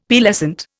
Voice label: "Pearlescent", Indian; Dictionary